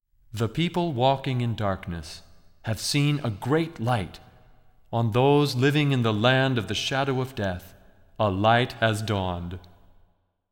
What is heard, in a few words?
Narration; Isaiah 9 2